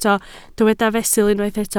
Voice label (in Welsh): "fesul", Welsh